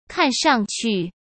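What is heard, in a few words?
"看上去", Mandarin Chinese; chinese-flashcards; hsk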